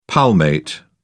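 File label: "palmate", British English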